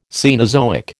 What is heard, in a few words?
"Cenozoic", American